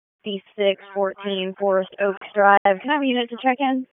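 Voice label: Police Northeast District Dispatch; Raleigh; wake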